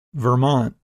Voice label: "Vermont", English Pronunciation

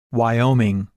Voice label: "Wyoming" English Pronunciation

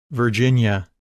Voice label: "Virginia", English Pronunciation

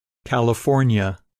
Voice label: "California", English Pronunciation

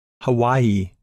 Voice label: "Hawaii", English pronunciation

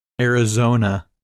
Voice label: "Arizona", English Pronunciation

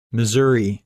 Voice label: "Missouri" English Pronunciation

